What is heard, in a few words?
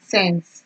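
"sense", Nigerian Pidgin, female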